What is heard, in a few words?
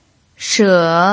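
"she" Mandarin Chinese, third tone, pinyin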